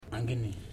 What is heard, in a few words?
"mother", Ipili